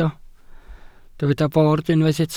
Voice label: "bord", Welsh